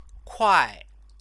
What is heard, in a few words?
"kuai", Chinese